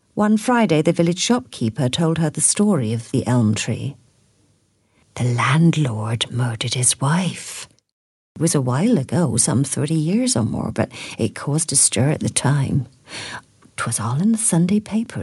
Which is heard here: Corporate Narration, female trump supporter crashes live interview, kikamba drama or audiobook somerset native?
audiobook somerset native